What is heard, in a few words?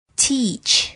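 "teach", English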